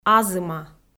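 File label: "азыма", Komi, female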